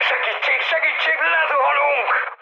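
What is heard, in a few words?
Hungarian aircraft destroyed mood high, speech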